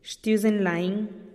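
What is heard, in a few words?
"xtiuzen lain", Teotitlán del Valle Zapotec; gracias a dios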